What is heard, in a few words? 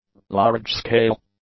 "large-scale", English; adjectives